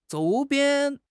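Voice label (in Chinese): "周边", Chinese; HSK; HSK6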